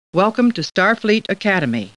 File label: Star Trek Computer Voice; StarTrekLCAR